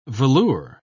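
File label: "velure", British English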